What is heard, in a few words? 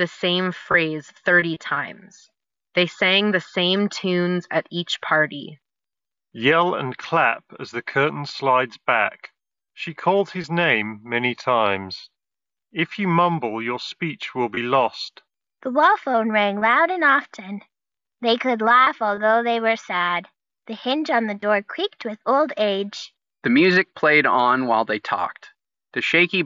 Soundcore Space A40 Ideal microphone sample; Anker